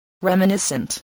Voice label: "reminiscent", spelling voice